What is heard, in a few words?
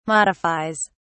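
"modifies", British English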